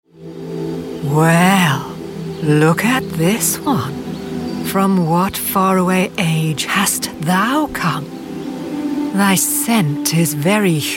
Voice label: character

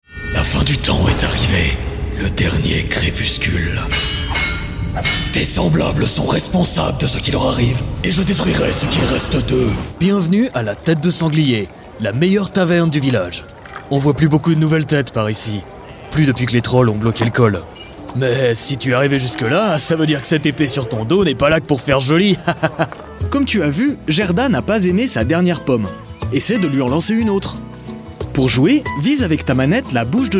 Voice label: french male video game

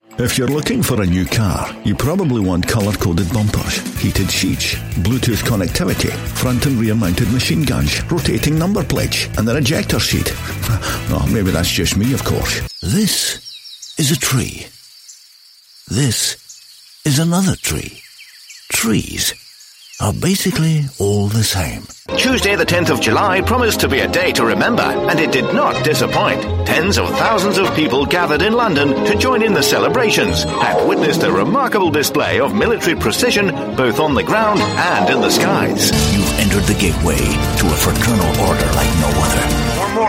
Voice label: characters, Scottish Male

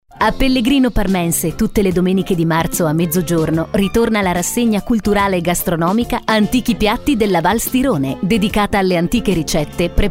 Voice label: Italian showreel, Italian Female Voice Artist

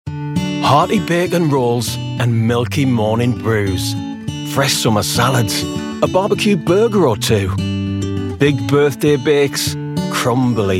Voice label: commercial

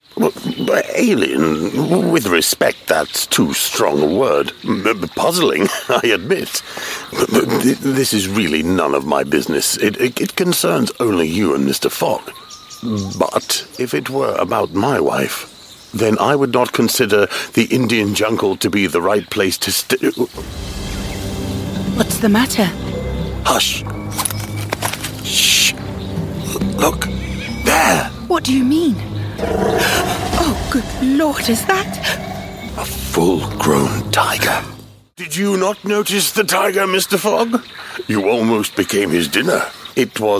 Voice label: scottish male character

